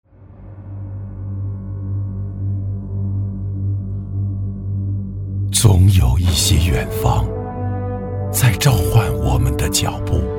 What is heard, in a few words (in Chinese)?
男 宣传片旁白 深沉大气; 中国电信